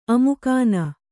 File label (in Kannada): "ಅಮುಕಾನ", Kannada